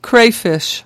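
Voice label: "crayfish crawfish", English words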